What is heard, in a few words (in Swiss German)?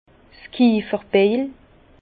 "kiefferbeil", Bas Rhin; vin; hache du tonnelier